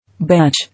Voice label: "Batch", English

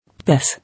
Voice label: "Piss", English

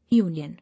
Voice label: "Union", English

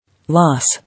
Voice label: "Loss", English

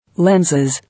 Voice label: "Lenses", English

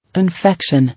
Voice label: "Infection", English

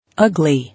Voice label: "Ugly", English

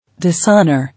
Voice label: "Dishonour", English

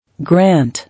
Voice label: "Grant", English

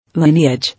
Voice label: "Lineage", English

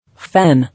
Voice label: "Fan", English

